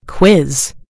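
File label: "quiz", British English; gcompris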